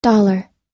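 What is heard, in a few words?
"dollar", American English; dollar /dɑ́:lɚ; ドル、1 ドル紙幣、1 ドル貨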